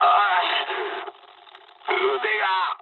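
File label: damaged pilot mood med, speech japanese; War Thunder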